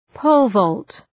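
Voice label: "pole-vault", English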